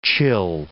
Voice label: "chill", English

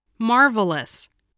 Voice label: "marvelous", spelling voice

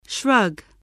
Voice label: "shrug", spelling

